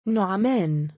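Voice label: "nu3maan", Arabic, male; given name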